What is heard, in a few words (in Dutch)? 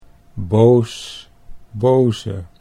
"boos boze", Dutch